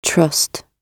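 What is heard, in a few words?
"trust", British English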